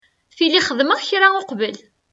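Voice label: "Tili xedmeɣ kra uqbel.", Kabyle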